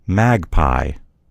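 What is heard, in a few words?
"magpie", US English; gcompris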